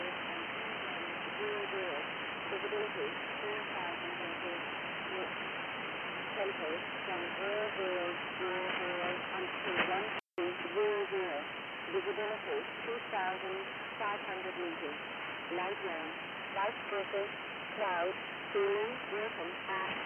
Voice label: Shannon Volmet USB; 8957khz